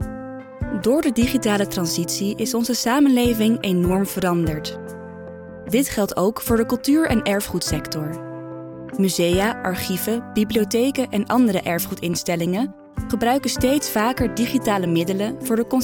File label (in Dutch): Narratief Corporate; Narratief Corporate Demo